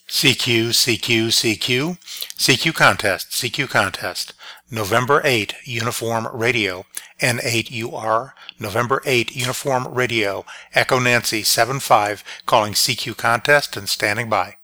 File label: contest cq long; hpsdr; station integration